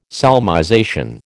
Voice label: "solmization", American

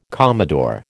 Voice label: "commodore", American

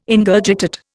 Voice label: "Ingurgitate", Indian

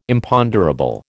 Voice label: "Imponderable", American

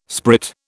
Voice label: "sprit", British

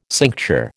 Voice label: "cincture", American

